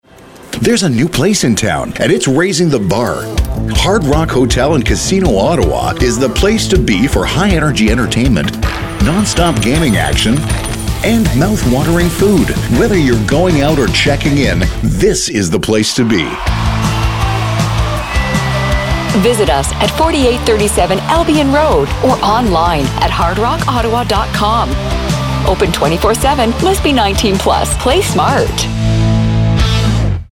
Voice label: Radio Ad; Rideau Carleton